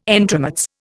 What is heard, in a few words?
"entremets", Indian